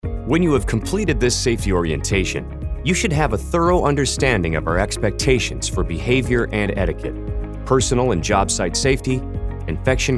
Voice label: eLearning